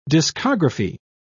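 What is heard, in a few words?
"discography", English, British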